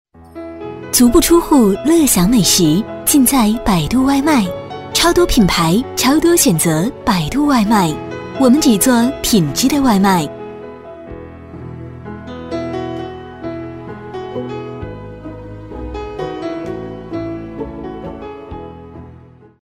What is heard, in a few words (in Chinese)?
彩铃 广告配音; 外卖; 百度外卖